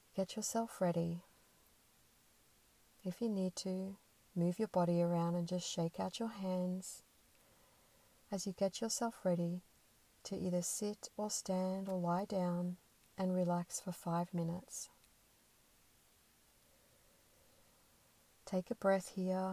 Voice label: sample meditation; meditations